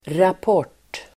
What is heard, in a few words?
"rapport", Swedish